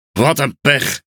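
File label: NL Speech Quick Commands Caramba, Dutch; World Of Warships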